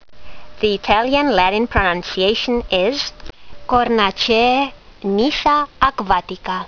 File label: latin; Nyssa aquatica